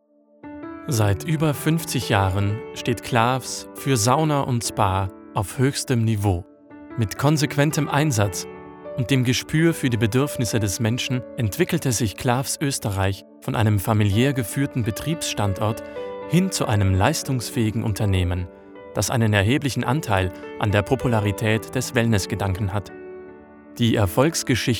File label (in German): Imagefilm; Klafs